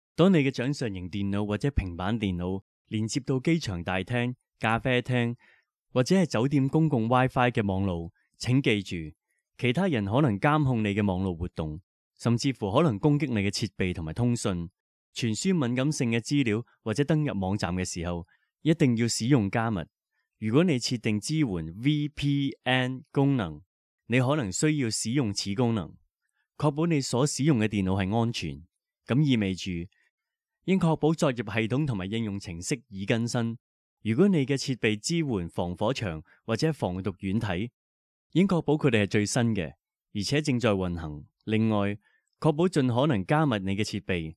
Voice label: Cantonese